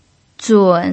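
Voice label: "zun3", Mandarin Chinese pinyin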